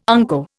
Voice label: "unco", Indian